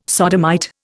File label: "sodomite", Indian